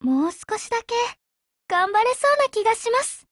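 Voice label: feed, Japanese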